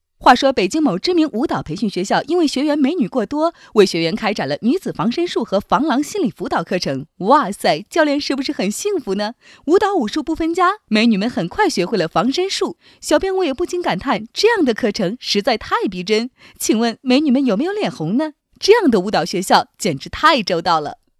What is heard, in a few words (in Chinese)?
女; 飞碟说风格; 舞蹈学校